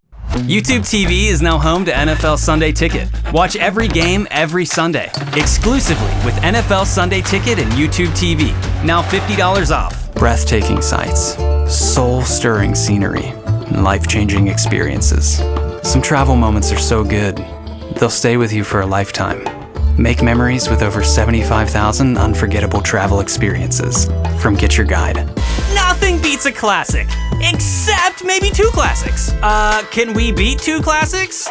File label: Commercial